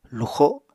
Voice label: "luxo", Apatani

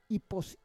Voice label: "ipos", Roon

